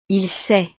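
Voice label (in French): "il sait", French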